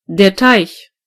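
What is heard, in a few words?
pond, German voices words; gcompris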